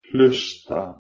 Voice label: "hlysta", Anglo Saxon